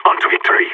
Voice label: pep talk; Counter Strike; CS:GO; phoenix

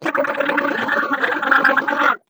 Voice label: ballbot pain turret; player; Portal 2

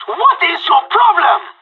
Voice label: killed friend, pirate; Counter Strike Model Pack; CS:GO

